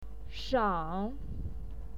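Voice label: "shang3", Mandarin Chinese pinyin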